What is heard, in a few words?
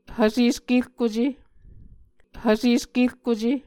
"hvse eskerkuce", Muscogee